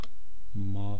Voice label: "mwaa", east cree syllabics

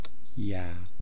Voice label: "yaa", East Cree syllabics; language

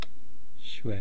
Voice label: "shwe", East Cree syllabics

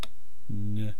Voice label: "nu", east cree syllabics

